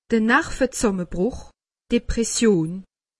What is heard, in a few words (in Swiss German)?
"depression", alsace; medecine